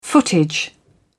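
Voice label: "footage", British English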